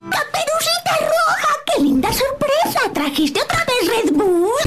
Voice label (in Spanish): Abuelita dibujos animados